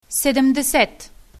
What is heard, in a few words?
seventy, bulgarian numbers